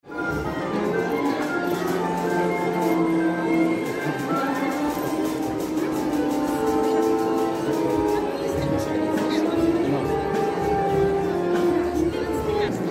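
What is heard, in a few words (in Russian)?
настройка перед спектаклем много разговоров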